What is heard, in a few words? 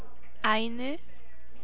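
"Ainu", USA, female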